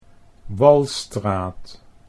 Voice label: "Walstraat", Dutch

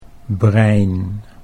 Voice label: "brein", Dutch

